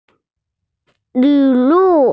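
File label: "gift", Mandarin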